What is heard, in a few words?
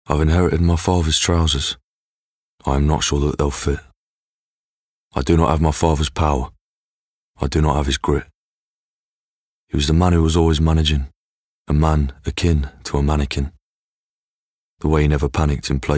NARRATIVE - Poem